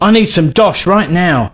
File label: Need Money, male; svencoop